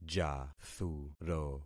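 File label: "jathuro", concatenated syllables; Affixing experiment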